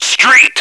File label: street, radio, male